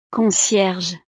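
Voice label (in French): "concierge", French; profesiones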